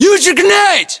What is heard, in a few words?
order action grenade, US; localized english; battlechatter